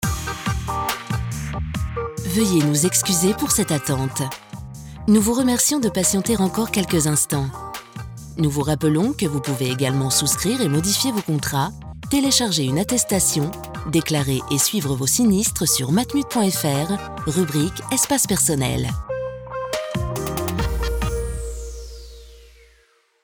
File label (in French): Repondeur; Matmut